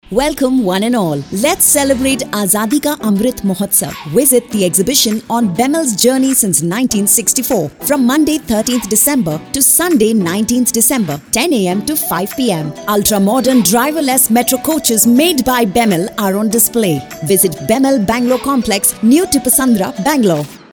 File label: English, female, Bangalore; BEML